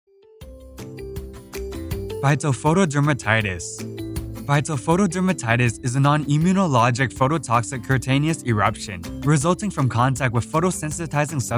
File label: medical narration; Phytophotodermatitis